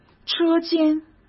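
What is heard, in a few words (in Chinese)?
"车间", Mandarin Chinese; chinese flashcards; hsk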